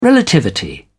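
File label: "relativity", British English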